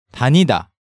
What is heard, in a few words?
"Danida", Korean